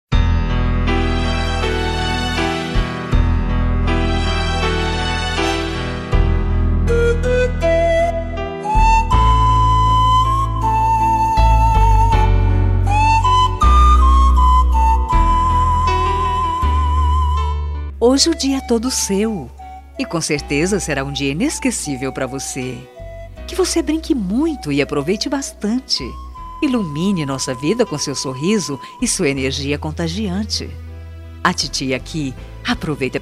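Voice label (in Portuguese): birthday SOBRINHO female, telemensagem; ANIV SOBRINHO FEM; aniversario sobrinha sobrinho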